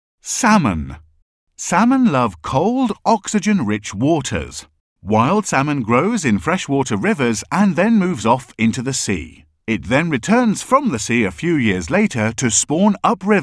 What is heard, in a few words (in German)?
Eng UK Kommentar Dynamisch